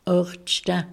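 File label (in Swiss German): "àchtschta", Alsatian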